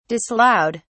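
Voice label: "disallowed", British English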